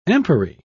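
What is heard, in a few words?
"empery", British English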